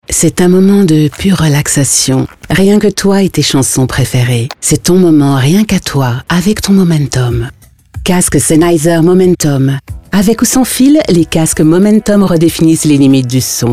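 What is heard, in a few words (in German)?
fr werbung, French; sprecher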